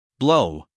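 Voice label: "blow", American English, male; everyone-can-use-english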